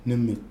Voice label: "nɨmɨk", Nafe; n. coals

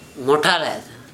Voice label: thick, Gta

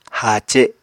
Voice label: "haace", Apatani

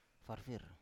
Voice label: "farfir", Biak

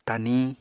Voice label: "taniñ", Apatani

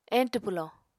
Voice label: "eñtw pwlò", Apatani

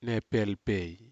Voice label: "nepelpei", Aneityum; bushes

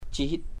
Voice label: lightening, chamacoco

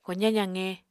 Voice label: "kozañ zaqe", Apatani

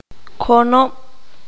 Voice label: "Pueblo", Q'anjob'al

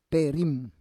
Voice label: "perim", Roon

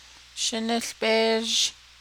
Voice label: boil it 2nd person singular, Navajo

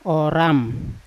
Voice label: "oram" stone, Yawa

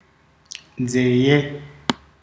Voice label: "ear", ndau

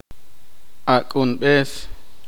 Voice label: poqomchi; hijo de hombre

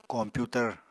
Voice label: "kompyutar", apatani

